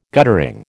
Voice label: "guttering", American

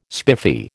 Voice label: "spiffy", American

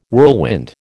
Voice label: "whirlwind", American

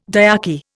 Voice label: "dyarchy", Indian

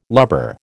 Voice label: "lubber", American

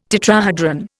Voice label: "tetrahedron", Indian

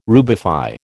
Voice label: "rubify", American

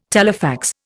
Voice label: "telefax", Indian

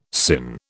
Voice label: "sinh", British

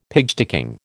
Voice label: "pigsticking", American

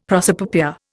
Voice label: "prosopopoeia", Indian; Dictionary

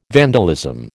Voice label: "vandalism", American

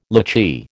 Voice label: "lychee", American

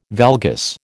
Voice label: "valgus", American

